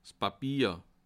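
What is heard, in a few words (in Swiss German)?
"papier", Bas Rhin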